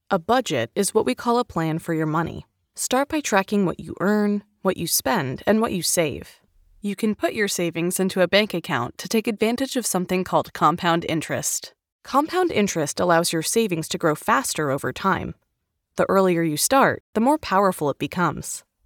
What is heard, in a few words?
Finance eLearning Sample; elearning corporate training